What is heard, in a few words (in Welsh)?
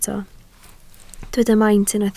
"maint", Welsh